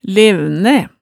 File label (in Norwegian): "levnæ", hemsedalsmaalet tale